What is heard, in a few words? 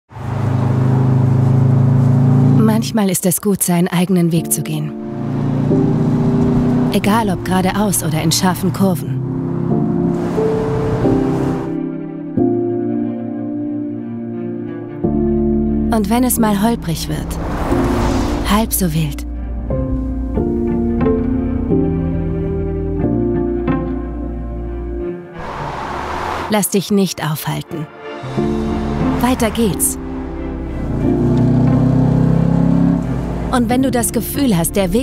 german automotive, german voice actors